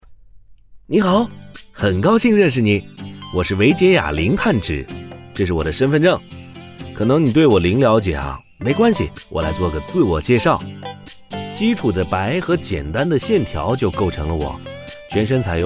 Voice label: 自然轻松; 唯洁雅碳纸

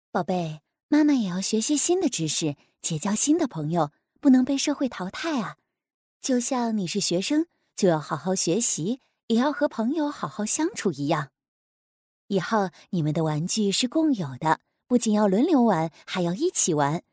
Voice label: 温柔妈妈

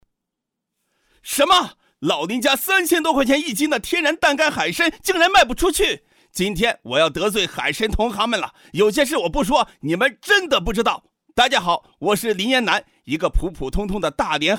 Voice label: 口播带货激动亢奋

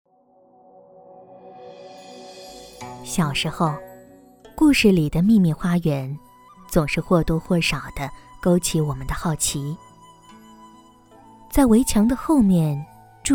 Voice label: 大气纪录片; 园林

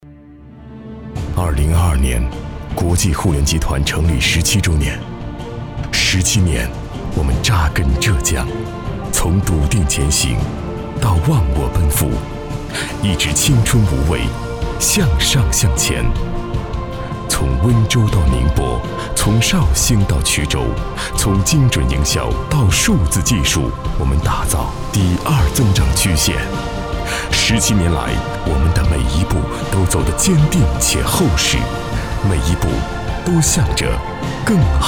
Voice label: 宣传大气企业历程

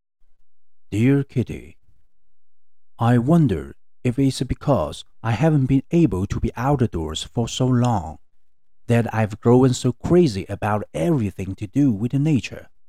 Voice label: 短文朗诵; 英语短文朗诵

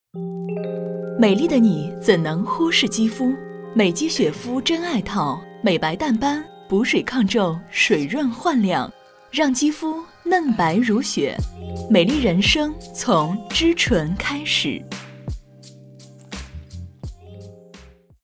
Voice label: 广告美妆

